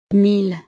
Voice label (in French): "mille", frances